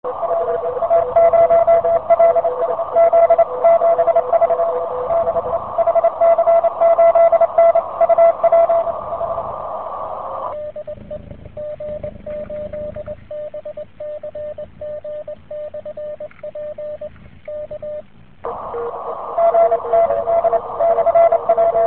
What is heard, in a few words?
QSO